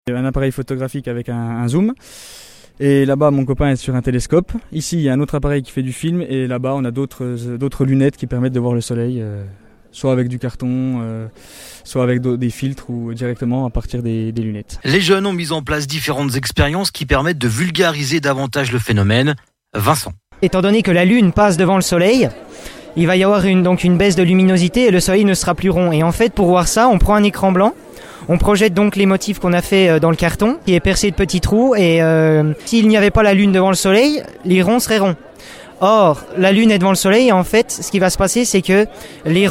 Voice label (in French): reportage radio plein air